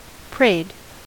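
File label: "prayed", US English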